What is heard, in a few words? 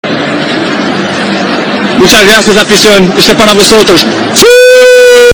suiiiiiii extra bass